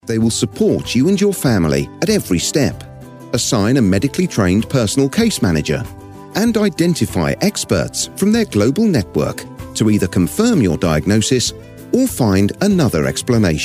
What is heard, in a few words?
corporate